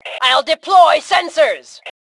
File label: Deploy Sensors, Female; T2 Female 04